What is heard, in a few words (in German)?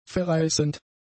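"verreißend", german; adjectives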